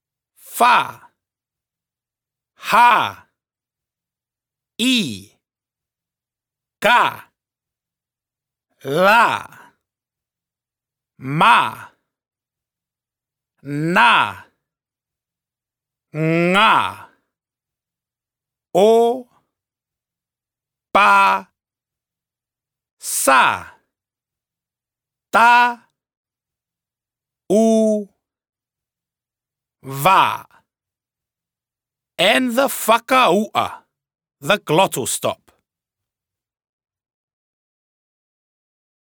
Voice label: Lea Faka-Tonga alphabet male voice